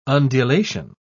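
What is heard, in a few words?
"undulation", British English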